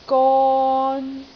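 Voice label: "gon3", Cantonese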